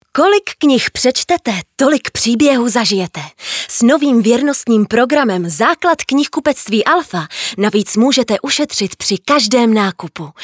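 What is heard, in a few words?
Czech, female, commercial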